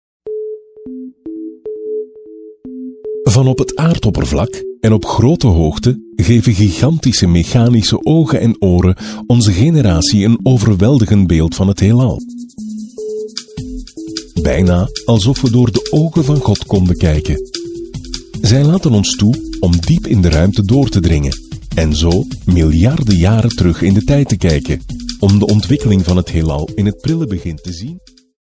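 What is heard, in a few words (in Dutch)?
flemish male narration; dutch; voice demos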